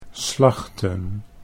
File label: "slachten", Dutch; 'to butcher'